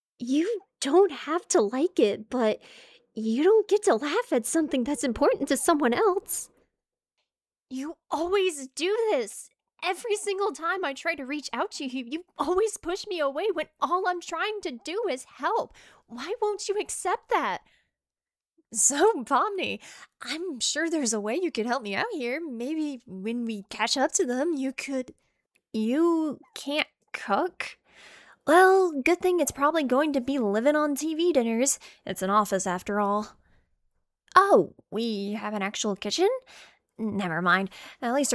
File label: Animation & Video Game(s)